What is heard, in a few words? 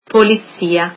"police", italian